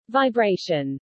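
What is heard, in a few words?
"vibration", English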